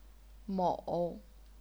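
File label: "mou", third tone, chinese pinyin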